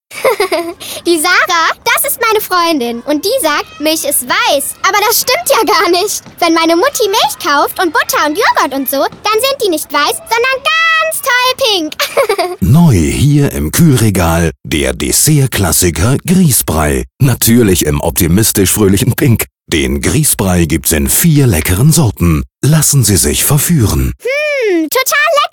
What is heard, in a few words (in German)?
Ladenfunk; Sachsenmilch